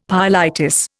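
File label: "pyelitis", Indian English; Dictionary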